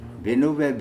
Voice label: "benobe", Andamanese; Go to sleep!